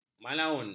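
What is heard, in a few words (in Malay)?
"malaun", Malay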